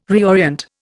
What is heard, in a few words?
"reorient", Indian